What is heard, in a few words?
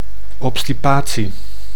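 "obstipatie", Dutch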